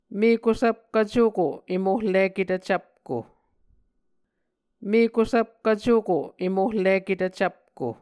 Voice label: "mekusvpkv cuko em ohliketv cvpko", muscogee